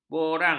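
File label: "borang", Malay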